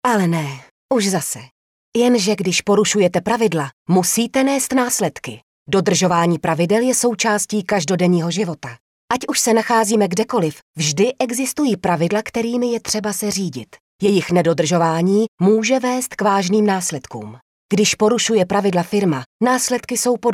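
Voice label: Female Czech e-learning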